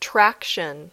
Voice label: "traction", English